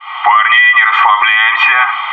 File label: "front yard", bot